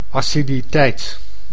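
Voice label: "aciditeit", Dutch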